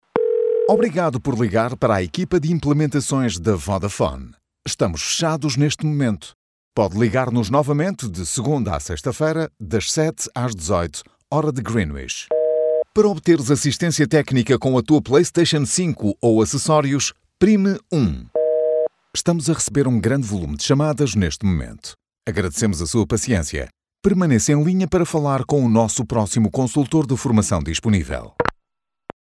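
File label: portuguese interactive voice response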